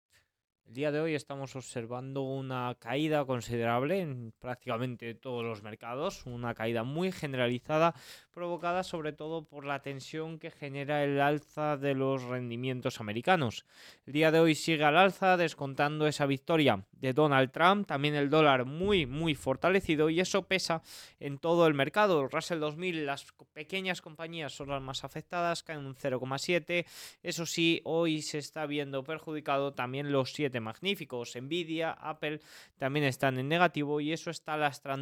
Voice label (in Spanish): radio; noticias bolsa